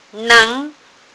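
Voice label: "neng2", Mandarin Chinese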